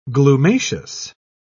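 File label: "glumaceous", English, British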